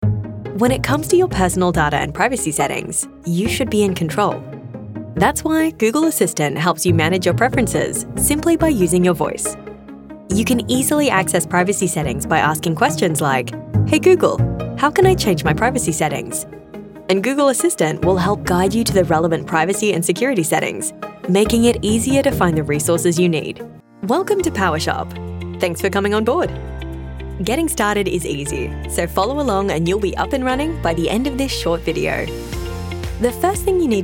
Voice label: Australian Female

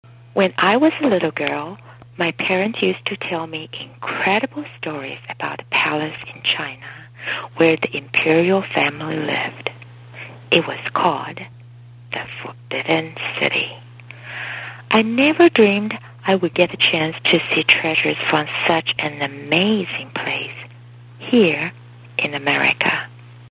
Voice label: Accented English, Female

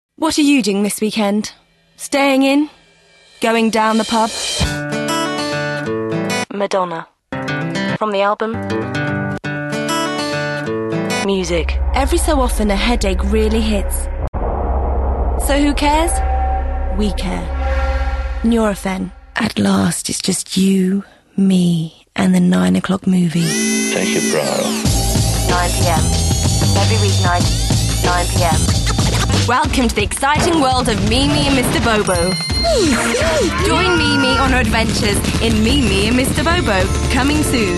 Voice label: British Female

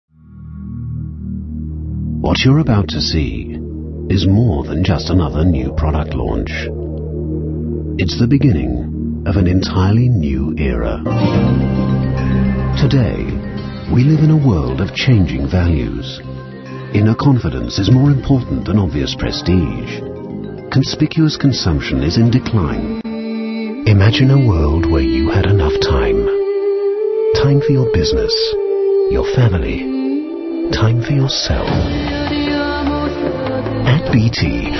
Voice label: British Male

